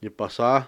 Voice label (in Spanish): "hija", Cucapá; Parentesco